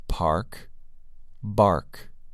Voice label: "park" "bark"